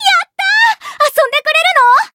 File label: encount, jp; bivette